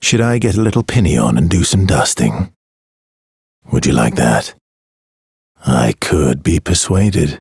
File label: Ambient Barks, en; Warframe; Mall Romance; Arthur